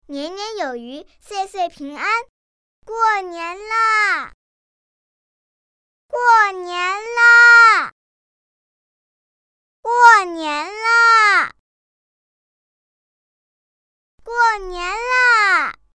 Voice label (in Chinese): 女 女童声 喜庆 过年啦